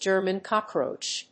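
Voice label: "German cockroach", English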